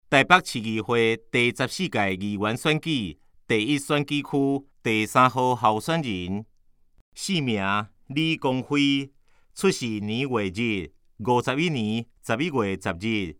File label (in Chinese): 台語; 臺北市; 有聲公報; 市議員; 臺北市議會第14屆議員第1選舉區 (士林、北投區)候選人介紹